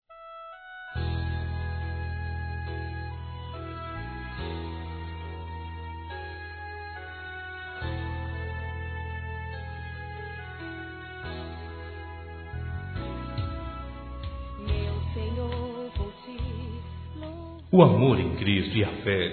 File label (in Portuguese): Otimismo Para Filho Masculino, Telemensagens